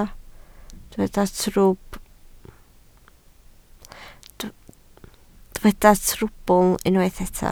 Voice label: "trwbl", Welsh

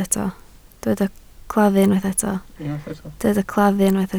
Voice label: "claddu", Welsh

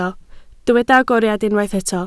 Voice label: "agoriad", Welsh

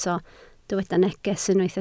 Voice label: "neges", Welsh

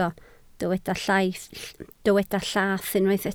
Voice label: "llath", Welsh

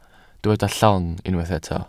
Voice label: "llong", welsh

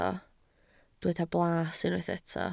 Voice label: "blas", Welsh

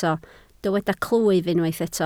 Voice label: "clwyf", Welsh

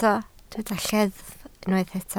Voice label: "lleddf", Welsh

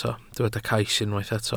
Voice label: "cais", welsh

